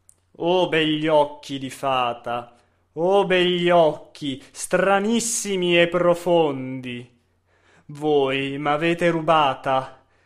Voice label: Occhi di fata espressivo; Denza

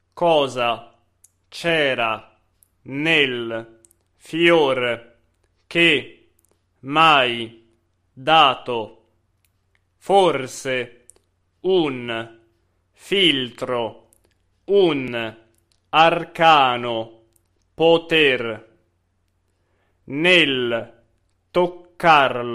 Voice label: monotono

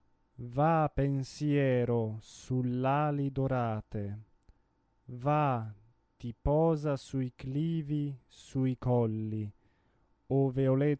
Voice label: espressivo; Nabucco

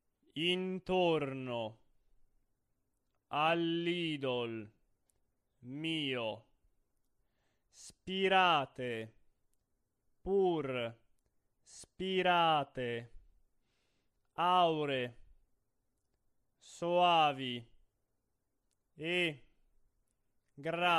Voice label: monotono; Cesti

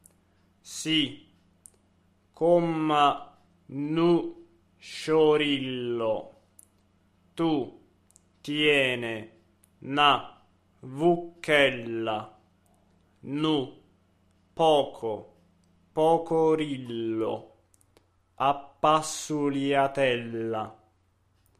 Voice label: espressivo

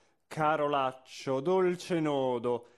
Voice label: Caro laccio espressivo

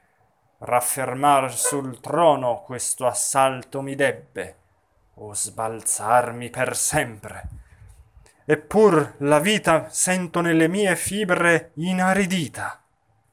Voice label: espressivo; Macbeth

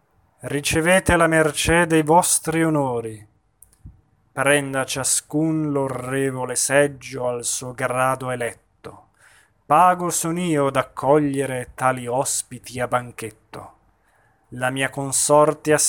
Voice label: espressivo; Macbeth

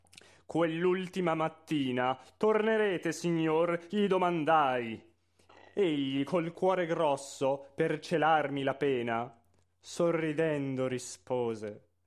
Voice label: espressivo; Madama Butterfly

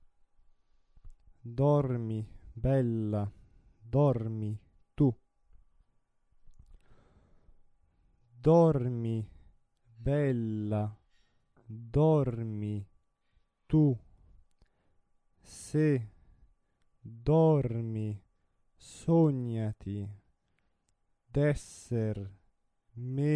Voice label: monotono; Bassani; Dormi bella dormi tu

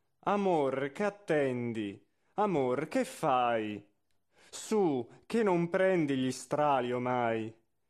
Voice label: espressivo; Caccini; Amor ch attendi

